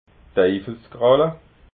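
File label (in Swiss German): "deifelskralla", Alsatian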